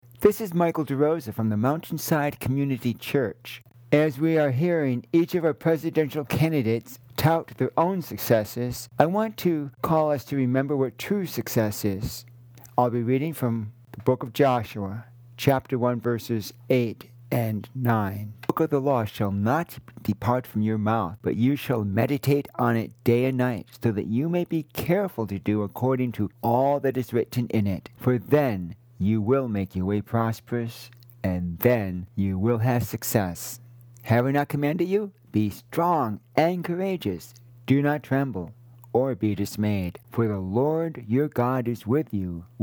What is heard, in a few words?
Radio Sermonette; Joshua 1 8; Courage and Success